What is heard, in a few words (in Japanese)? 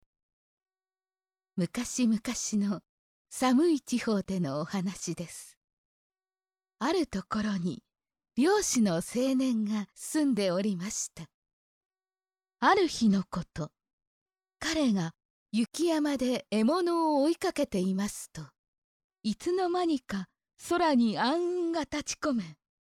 ナレーション, 効果音あり; 雪女の雫～ねぶってすすって包み込んで、うんと気持ち良くして差し上げますわ♪～